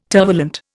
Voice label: "tervalent", Indian